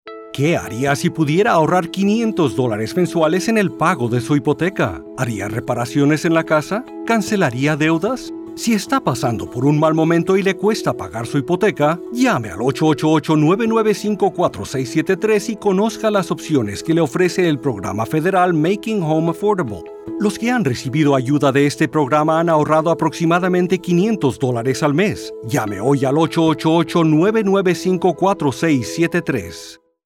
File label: Span Male; Save Money